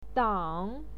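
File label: "dang", Mandarin Chinese, third tone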